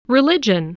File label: "religion", spelling voice